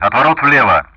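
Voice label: Speech Sound Russian; DCS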